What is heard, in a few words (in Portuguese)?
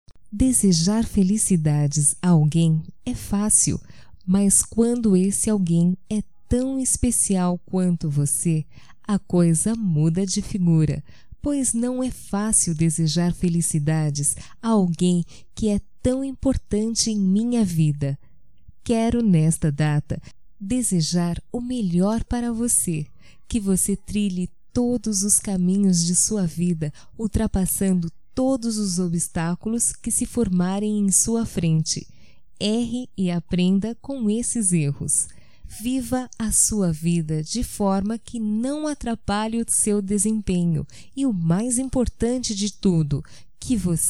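ANIVERSÁRIO NEUTRO, female, Telemensagens, Só Voz; Telemensagens 2017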